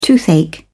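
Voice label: "toothache", British English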